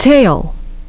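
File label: "tail", English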